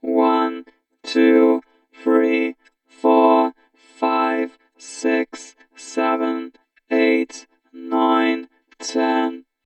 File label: Robot Counting Minor Drone